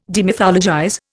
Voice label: "demythologize", Indian